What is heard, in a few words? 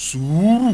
"suuru", Yoruba; ORAL VOWELS; duru-ilu-suuru